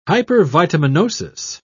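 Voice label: "hypervitaminosis", British English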